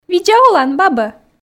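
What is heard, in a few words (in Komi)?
видза олан бабӧ, Komi, female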